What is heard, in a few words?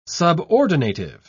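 "subordinative", British English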